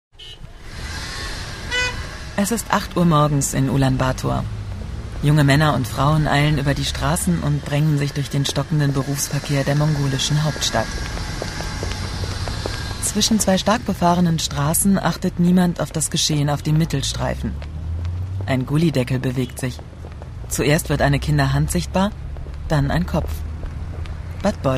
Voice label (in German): deutsch featuremix